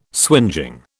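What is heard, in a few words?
"swingeing", British English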